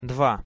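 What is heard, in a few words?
"dva", Russian pronunciation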